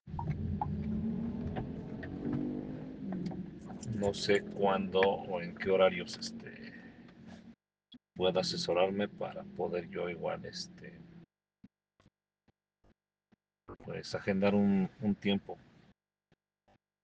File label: whatsapp ptt